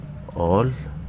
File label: "moon", Wahgi; lae unitech